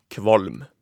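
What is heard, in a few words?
"kvalm", Norwegian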